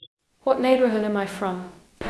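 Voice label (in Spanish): Voice Acting, Spanish, female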